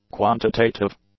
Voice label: "quantitative", English; adjectives